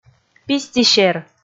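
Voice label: "писти сер", Komi, female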